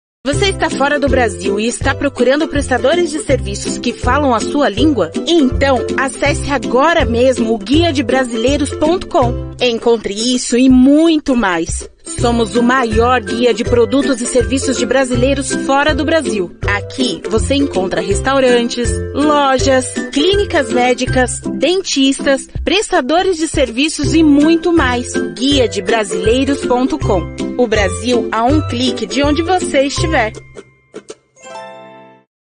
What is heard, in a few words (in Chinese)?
葡萄牙语女 外语 年轻; 小语种